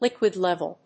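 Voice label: "liquid-level", English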